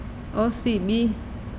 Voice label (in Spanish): "su diente", mazahua